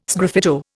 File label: "sgraffito", Indian